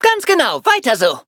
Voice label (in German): Cookie victory, de; cookie run